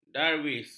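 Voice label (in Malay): "darwis", Malay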